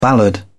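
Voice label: "ballad", British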